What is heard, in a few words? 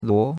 "luo2", chinois; Cours de Chinois